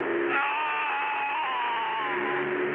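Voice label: German damaged pilot, speech; War Thunder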